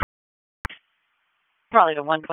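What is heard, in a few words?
Police Southwest District Dispatch, p25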